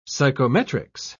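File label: "psychometrics", British English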